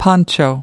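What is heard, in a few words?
"poncho", American English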